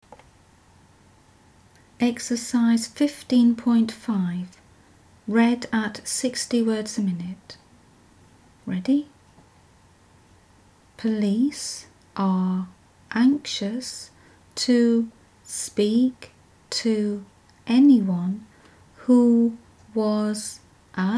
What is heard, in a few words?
60wpm; Teeline